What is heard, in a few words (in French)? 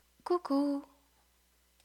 "coucou", French